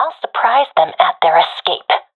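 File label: on my way to escape zone, professional female; player vo; Counter Strike Model Pack; CS:GO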